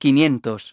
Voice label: "five hundreds", masculine; iOS 4